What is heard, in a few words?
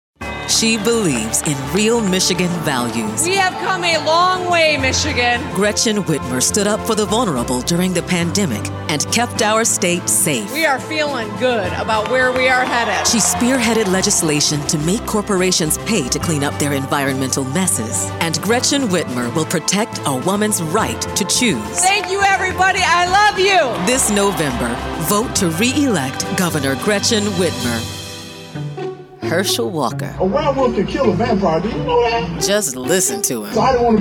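Democrat Voiceover, Female; Political DEMOCRAT Voices English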